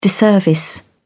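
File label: "disservice", British English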